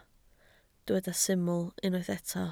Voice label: "syml", Welsh